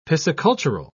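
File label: "piscicultural", British English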